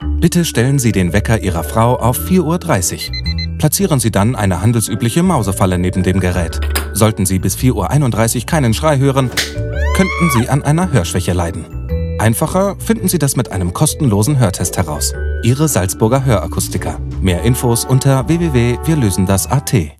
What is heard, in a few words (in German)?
Hoerakustiker Funk Spot